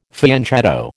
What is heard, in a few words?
"fianchetto", American